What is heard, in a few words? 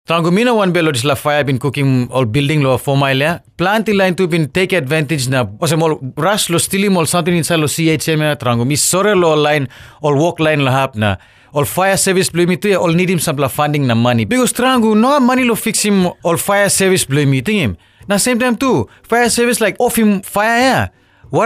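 Tok Pisin, voice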